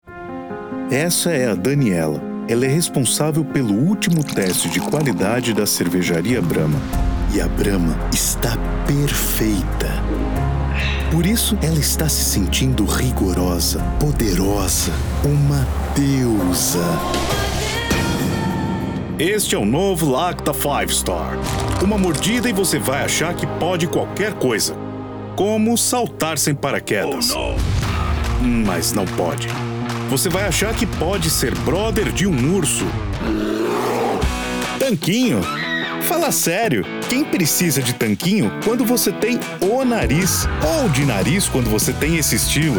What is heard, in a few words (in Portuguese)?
Comercial